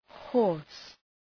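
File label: "hoarse", English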